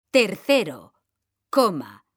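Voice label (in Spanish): tercero coma, Spanish, female; mekanta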